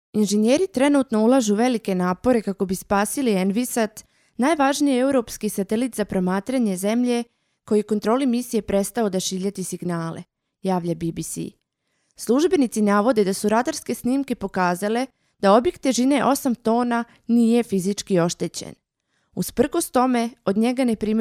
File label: croatian female; dictor; dictor-sounds